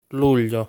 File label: "Luglio", Italian